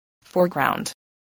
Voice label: "foreground", English voice, spelling